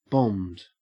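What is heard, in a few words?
"bombed", Australian English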